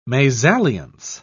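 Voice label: "mesalliance", British English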